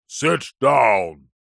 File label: human old grumpy man english says angrily sit down; zapsplat